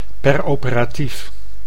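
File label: "peroperatief", Dutch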